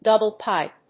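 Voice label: "DOUBLE PIPE", English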